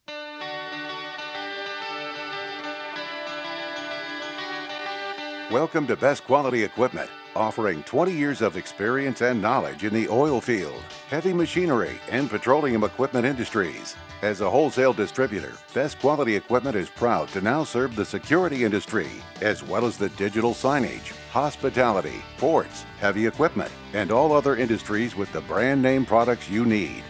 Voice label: Import Export Company On Hold Message